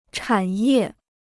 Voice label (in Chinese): "产业", Chinese